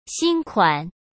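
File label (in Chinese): "新款", Mandarin Chinese; chinese-flashcards; hsk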